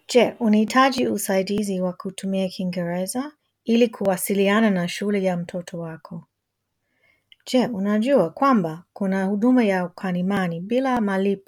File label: swahili; public schools; going to a public school